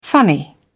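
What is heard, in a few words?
"funny", British English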